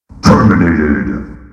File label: terminated, robotic announcer